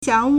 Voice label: "xiang2", Chinese